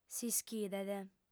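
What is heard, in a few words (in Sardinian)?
"s'ischidet", female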